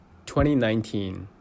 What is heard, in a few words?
"2019", pronunciation; twenty nineteen